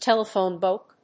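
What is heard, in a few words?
"telephone book", English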